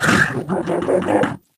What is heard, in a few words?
angry; Portal 2; Source Engine; video games; ballbot